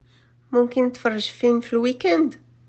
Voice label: Morrocan